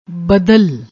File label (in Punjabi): "badal", Punjabi